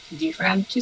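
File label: voice acting, es, female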